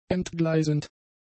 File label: "entgleisend", German; adjectives